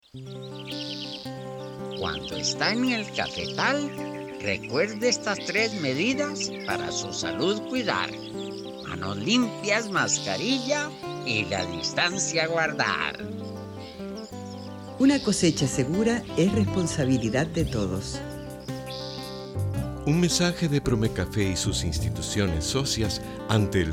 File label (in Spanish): Cuña actores; serie La Cosecha IICA covid